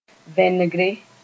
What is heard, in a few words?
"vẽnegre", moore